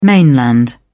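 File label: "mainland", British English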